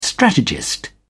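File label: "strategist", British English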